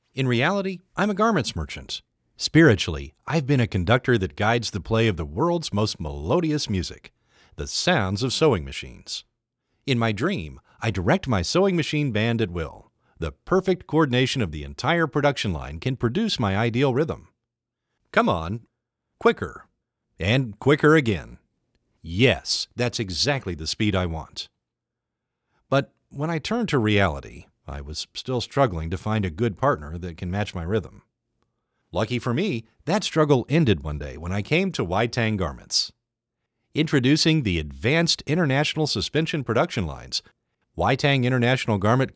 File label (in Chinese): 威腾英文配音; 杜丘